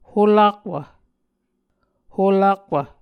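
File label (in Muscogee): "holakwv", Muscogee word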